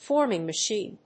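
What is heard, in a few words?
"FORMING MACHINE", English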